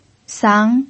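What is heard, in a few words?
"sang", second tone, Mandarin Chinese pinyin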